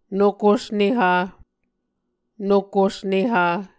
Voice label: "nokos neha", Muscogee